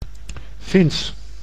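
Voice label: "Fins", Dutch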